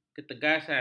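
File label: "ketegasan", Malay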